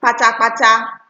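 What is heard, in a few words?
"kpatakpata", Nigerian Pidgin, female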